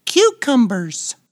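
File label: "cucumbers", English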